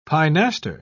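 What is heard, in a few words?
"pinaster", British English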